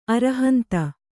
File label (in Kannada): "ಅರುಹಂತ", Kannada